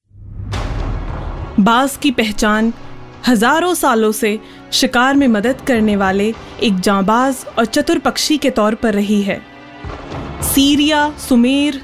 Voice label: hindi narration, female voice

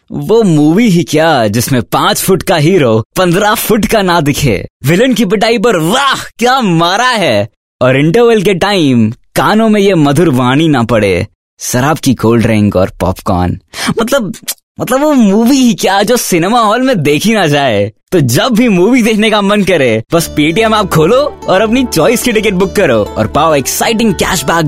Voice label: hindi, male voice

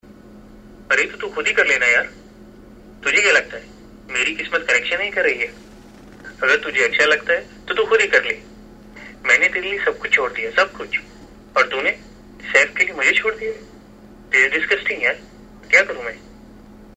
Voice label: mimicry, male voice; mimicry shahid

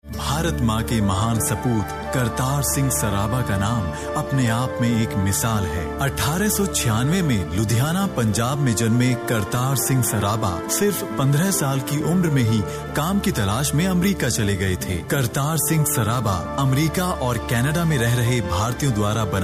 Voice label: hindi, male voice

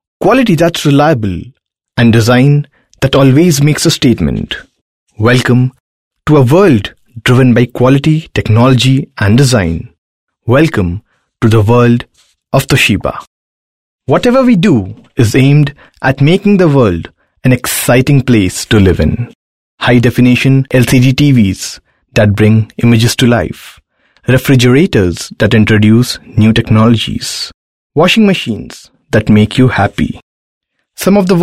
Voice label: English, male voice

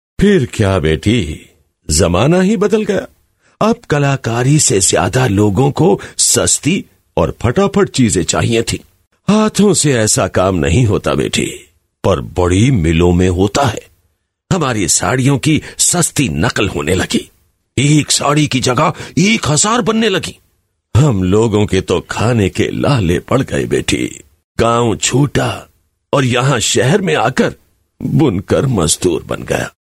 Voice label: radio play, male voice

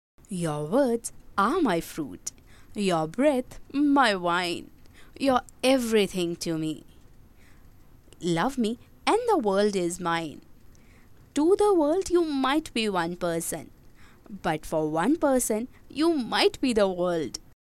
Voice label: english, female voice

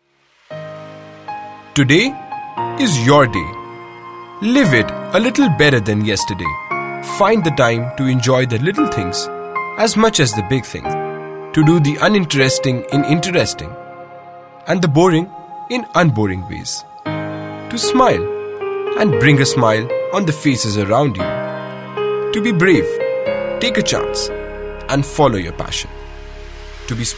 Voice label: English, male voice

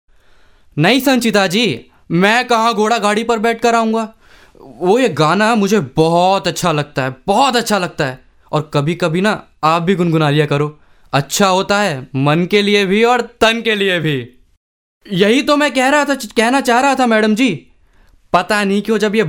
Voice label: character, male voice

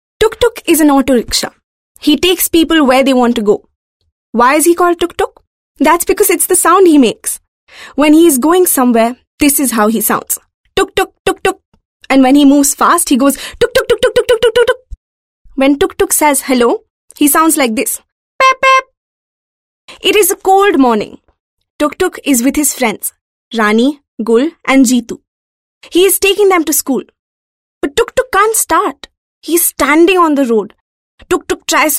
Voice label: English Elearning, female voice

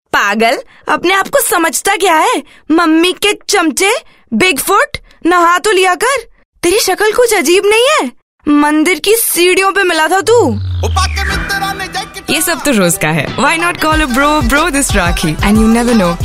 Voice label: female voice Hindi vo; Hike

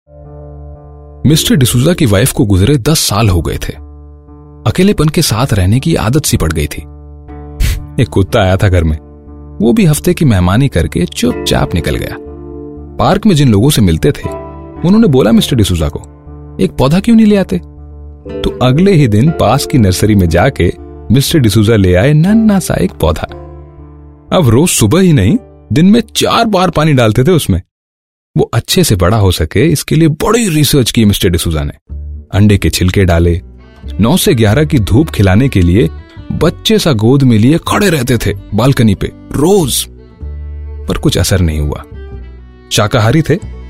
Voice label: Hindi character, male voice

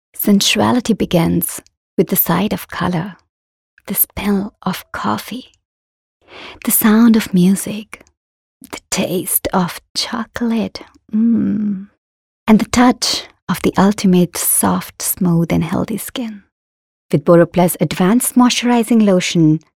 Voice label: female voice; Boroplus

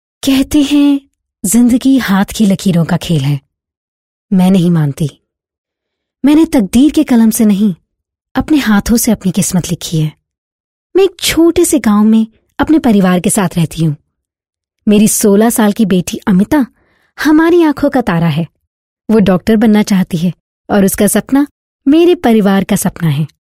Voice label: hindi, female voice

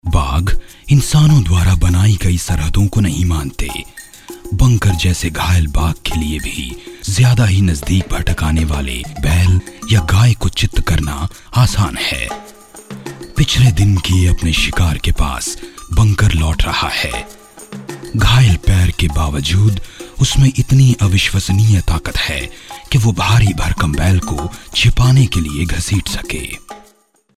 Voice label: male voice

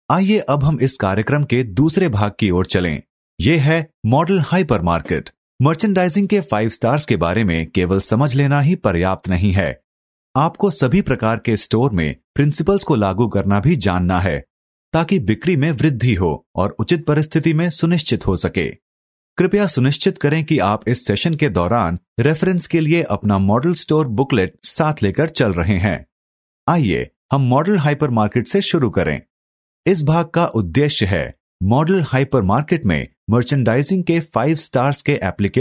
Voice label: model store, male voice; pepsi

